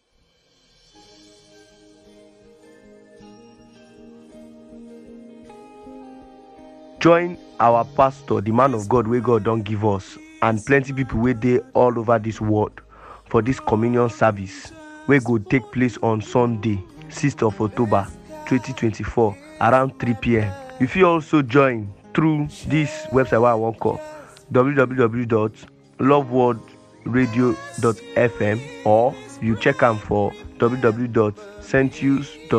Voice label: PIDGIN JINGLES